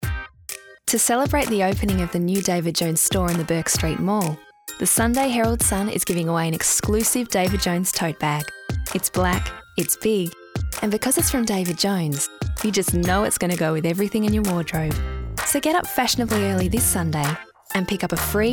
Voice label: promo; Sunday Herald Sun